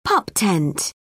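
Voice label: "pup tent", English, British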